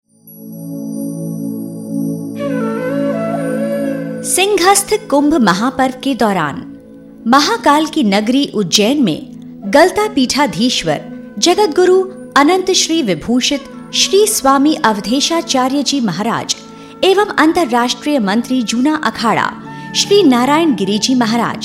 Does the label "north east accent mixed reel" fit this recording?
No